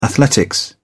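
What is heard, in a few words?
"athletics", English, uk British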